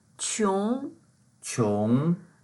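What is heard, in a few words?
"qiong2", Mandarin Chinese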